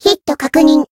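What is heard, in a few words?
attack, Japanese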